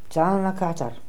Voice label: "Zalnakatar", pronounciations; Fourth Way; Beelzebub